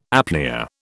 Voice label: "apnoea", British